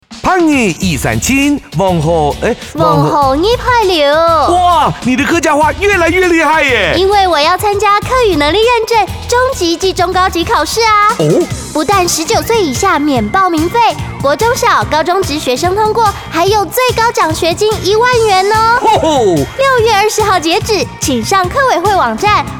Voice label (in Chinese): 華語客語四縣腔; 30秒; 客委會; 客語認證